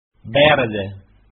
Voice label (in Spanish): "verde", Spanish